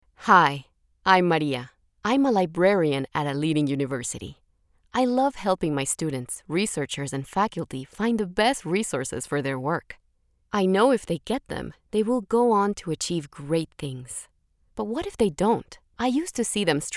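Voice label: English Latino, female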